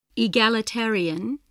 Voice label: "egalitarian", English

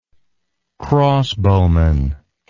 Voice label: "crossbowman", english

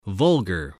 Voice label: "vulgar", spelling

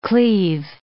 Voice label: "cleave", English

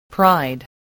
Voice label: "pride", voice, spelling

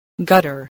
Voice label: "gutter", spelling

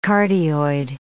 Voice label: "cardioid", English

